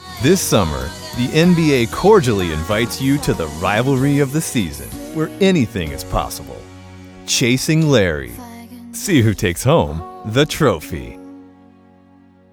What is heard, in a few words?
trailer; chasing larry; nba finals